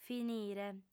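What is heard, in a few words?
"finire", Sardinian, female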